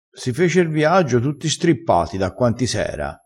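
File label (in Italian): "strippato", dialetto crespinese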